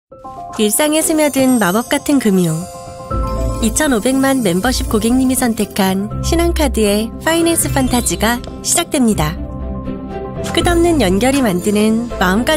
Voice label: Commercial

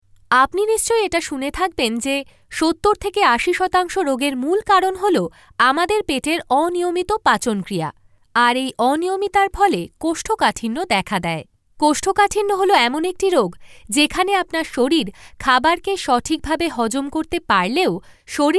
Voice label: Narration Sample

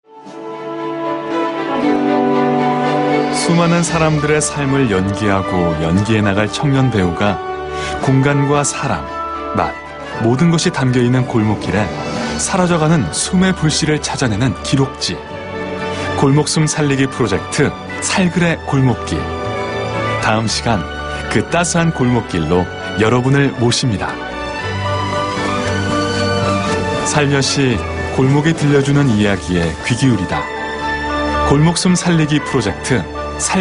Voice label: Documentary

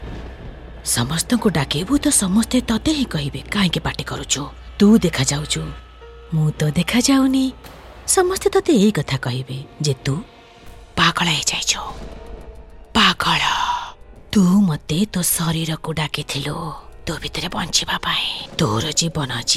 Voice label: FEMALE DRAMA

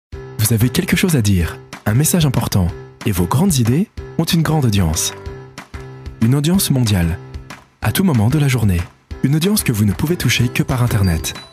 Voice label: Commercial

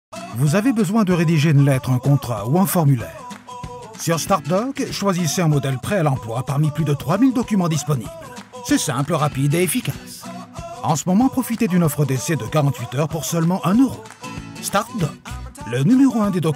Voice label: Commercial

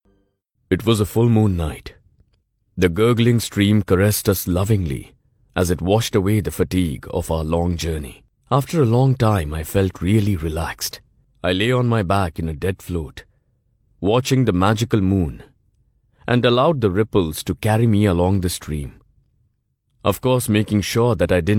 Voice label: Audiobook

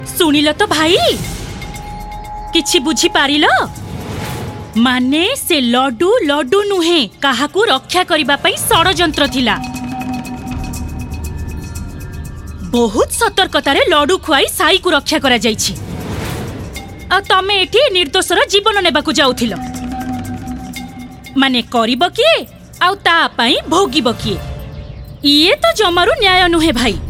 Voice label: FEMALE DRAMA

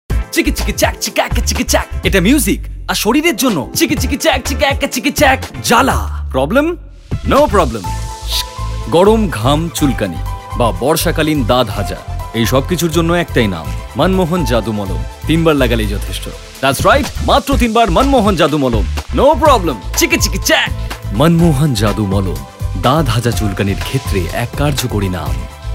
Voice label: TVC Sample

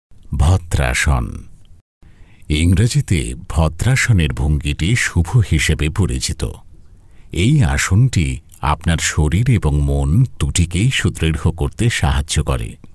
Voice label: Narration Sample

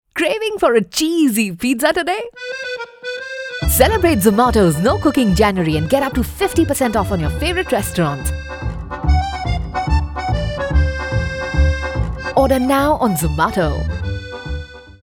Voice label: Commercial

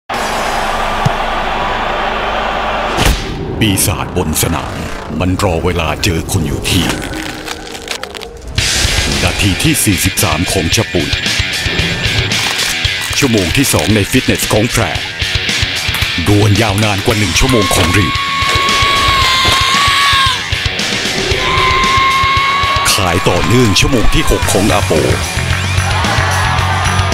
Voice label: Commercial

